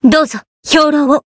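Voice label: heal, Japanese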